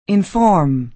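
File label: "inform", American English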